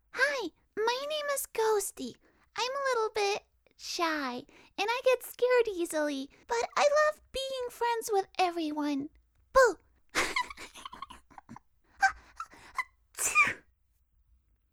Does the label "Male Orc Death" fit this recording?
No